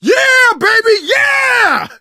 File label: taunt, survivor voice